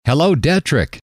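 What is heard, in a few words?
"hello", male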